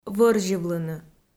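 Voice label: "вӧрзьывлыны", Komi, female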